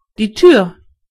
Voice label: "door", de; gcompris

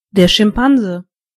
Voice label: chimp, de; gcompris

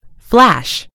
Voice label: "flash", en GB; gcompris

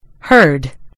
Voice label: "herd", en GB; gcompris

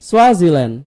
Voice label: "swaziland", id; gcompris-data; geography

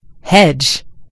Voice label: "hedge", en GB; gcompris

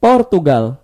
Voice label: "portugal", id; gcompris-data; geography

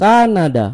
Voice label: "canada", id; gcompris-data; geography

